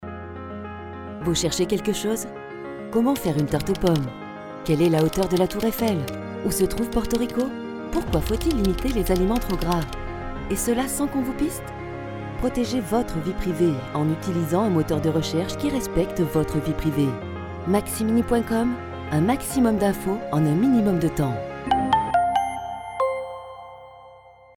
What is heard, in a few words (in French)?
spot radio; maximini; version française